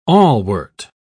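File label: "awlwort", British English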